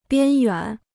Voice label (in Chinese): "边远", Chinese